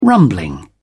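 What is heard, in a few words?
"rumbling", English, British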